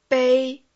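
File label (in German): Phoneme, chinesisch; bei 1; Silben; Chinesischen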